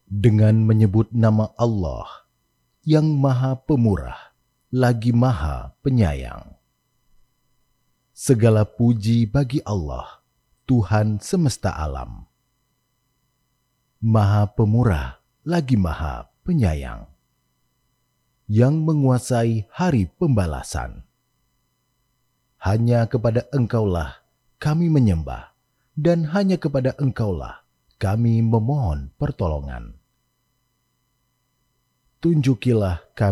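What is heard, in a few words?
Indonesian, voice over dubbing, male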